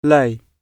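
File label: "lay", British English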